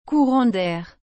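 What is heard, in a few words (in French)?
"courant d air", French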